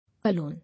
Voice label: "Alone", English

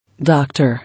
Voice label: "Doctor", English

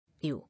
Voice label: "You", English

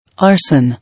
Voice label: "Arson", English

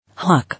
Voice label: "Hawk", English

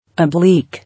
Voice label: "Oblique", English

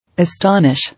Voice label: "Astonish", English

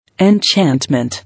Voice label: "Enchantment", English

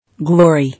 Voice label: "Glory", English

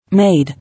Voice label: "Maid", English

